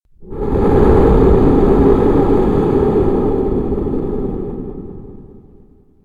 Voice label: satan voice